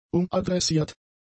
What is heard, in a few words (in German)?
"umadressiert", German; adjectives